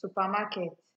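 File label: "supermarket", Nigerian Pidgin, female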